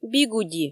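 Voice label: "бигуди" Komi, female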